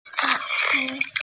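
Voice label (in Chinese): "er3duo5", Mandarin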